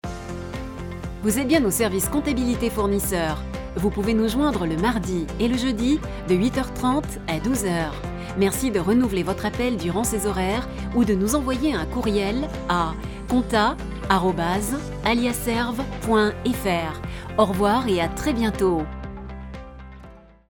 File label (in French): Repondeur Comptabilite; Alliaserv